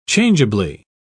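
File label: "changeably", British English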